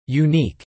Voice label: "unique", English

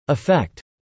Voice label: "effect", English

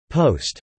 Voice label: "post", English

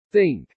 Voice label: "think", English

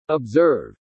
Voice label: "observe", English

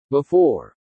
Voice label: "before", English

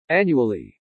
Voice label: "annually", pronounce

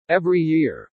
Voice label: "every year", English

